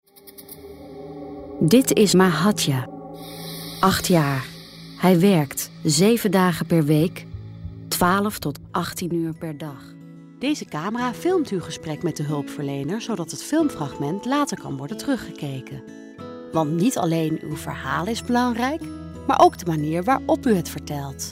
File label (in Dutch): promos corporate